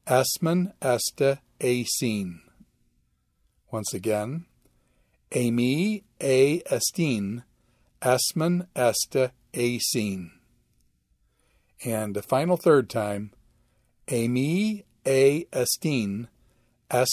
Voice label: Quick Chant Eimi verb